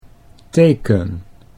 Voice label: "teken", Dutch